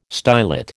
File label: "stylet", American